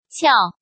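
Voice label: "Qiào", Chinese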